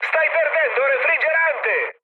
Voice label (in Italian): damaged water, mood high, speech it; War Thunder